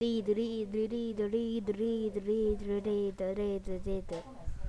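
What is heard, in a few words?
"lead", spoken word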